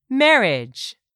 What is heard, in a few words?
"marriage", English; 3000 words